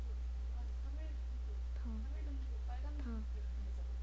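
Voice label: "pump", spoken word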